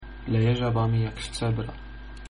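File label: rain, Polish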